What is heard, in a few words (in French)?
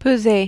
"peser", French